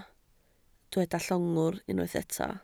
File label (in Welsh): "llongwr" Welsh